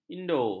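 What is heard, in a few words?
"indo", Malay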